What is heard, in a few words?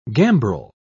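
"gambrel", English, British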